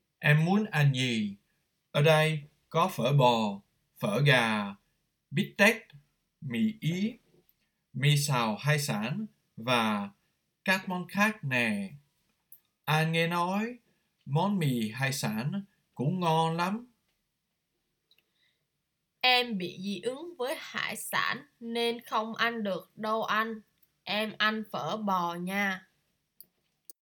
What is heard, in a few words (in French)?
Dictée